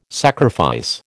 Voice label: "sacrifice", American

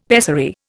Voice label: "pessary", Indian; Dictionary

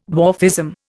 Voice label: "dwarfism", Indian

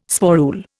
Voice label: "sporule", Indian

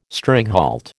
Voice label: "stringhalt", American

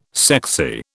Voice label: "sexy", British

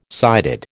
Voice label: "sighted", American

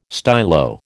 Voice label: "stylo", American

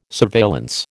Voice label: "surveillance", American

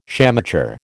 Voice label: "shamateur", American

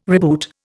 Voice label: "reboot", Indian

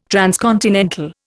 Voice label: "transcontinental", Indian

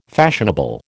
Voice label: "fashionable", American

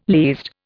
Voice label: "least", Indian

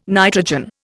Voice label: "nitrogen", Indian

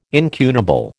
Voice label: "incunable", American

